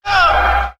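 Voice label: "uh", male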